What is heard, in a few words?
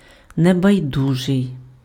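"небайдужий", Ukrainian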